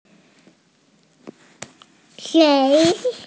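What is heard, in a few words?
"shei2", Mandarin Chinese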